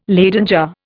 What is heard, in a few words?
"Leyden jar", Indian